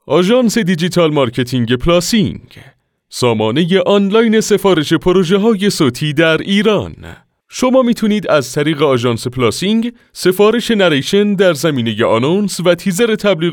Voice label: narrator; نریتور و گوینده آقا